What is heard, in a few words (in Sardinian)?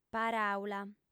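"paràula", female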